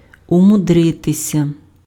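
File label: "умудритися", Ukrainian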